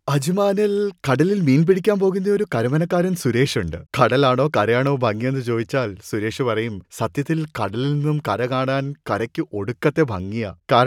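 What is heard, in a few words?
Malayalam Commercial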